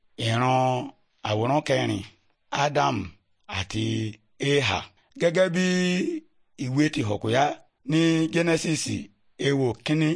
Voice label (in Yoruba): good news yoruba, bible